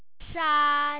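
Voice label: "shan1", Chinese Mandarin, Second Tone, The tone starts from a lower level and ends up high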